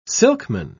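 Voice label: "silkman", British English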